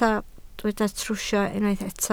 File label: "trwsio", Welsh